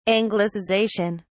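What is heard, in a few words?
"anglicization", British English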